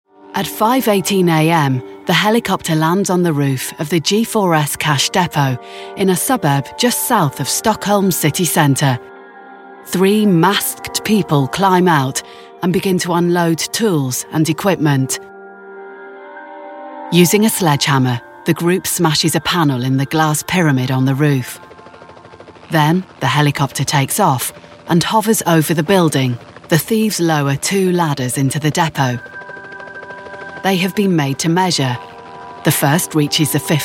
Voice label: narration